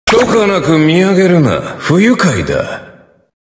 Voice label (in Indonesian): Ringtone Suara Emote; MLBB; Sukuna